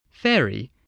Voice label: "fairy", voice